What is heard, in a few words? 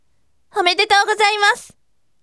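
voice, bright; omedetougozaimasu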